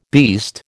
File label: "beast", American